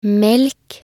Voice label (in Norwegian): "melk", nb_NO